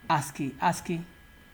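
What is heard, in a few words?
"askiaski", Nigerian Pidgin, male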